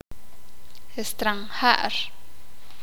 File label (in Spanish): "gringo", Sakapulteko